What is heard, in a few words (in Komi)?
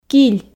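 "киль", Komi, female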